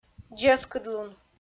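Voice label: "дзескыдлун", Komi, female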